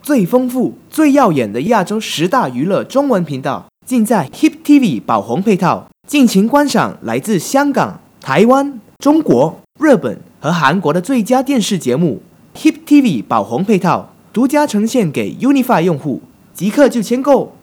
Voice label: Chinese

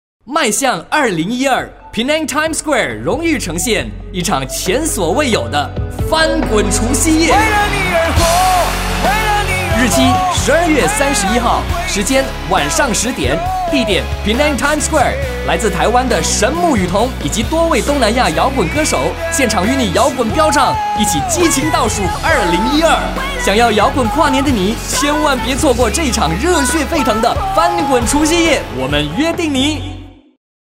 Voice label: Mandarin; Full house Count Down Party 2012

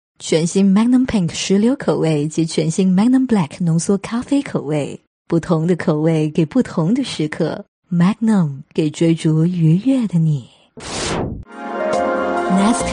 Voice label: Low Sexy; Magnum Nescafe Cadbury

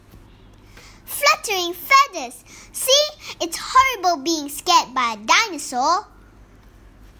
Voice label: Eng Sample Feathers

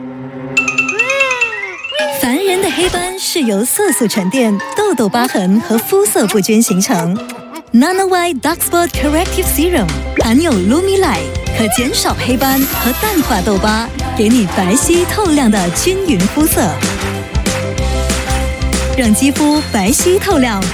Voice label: Bright Young Firm; Nanowhite Kotex Papermate

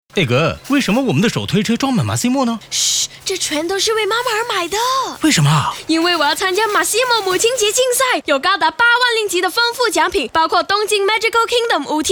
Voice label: mand

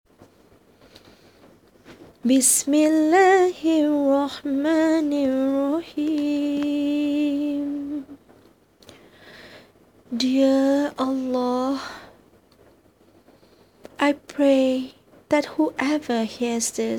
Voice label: Eng Islamic VO; SAMPLE

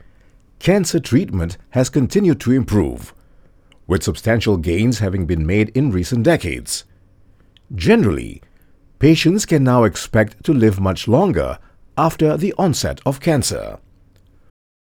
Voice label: Eng conversational

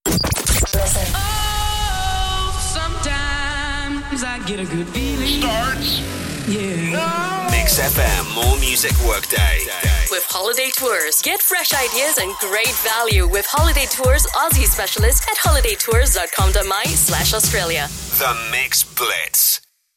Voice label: Radio Sweepers

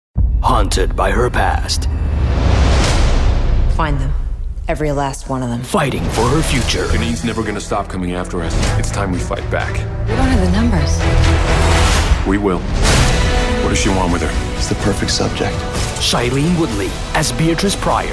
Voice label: ENG; GSC INSURGENT

